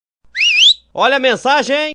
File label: "Olha a mensagem" ringtone